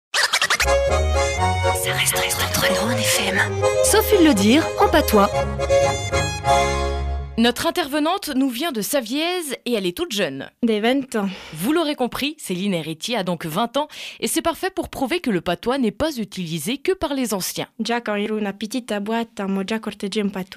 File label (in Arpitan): dire en patois; Arpitan; Valês (VS); Les Aleçons ( leçons) de Radio Rôno